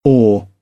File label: "awe", British; AmericanBritish